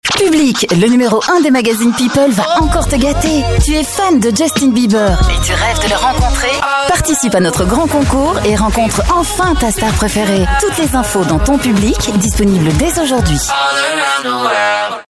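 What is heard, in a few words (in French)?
Belgian French, female, commercial; voice demos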